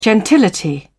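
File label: "gentility", British English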